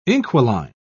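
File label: "inquiline", British English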